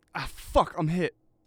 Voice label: hit, Voice Lines Combat Dialogue; EndlessVendetta